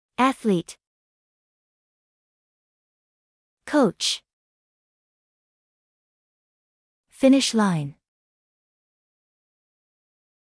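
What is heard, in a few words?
American; exercise sports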